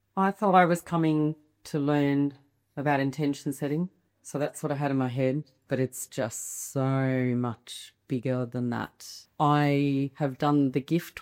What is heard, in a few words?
Testimonial